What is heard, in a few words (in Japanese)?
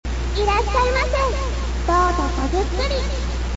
sample echo